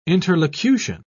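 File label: "interlocution", English, British English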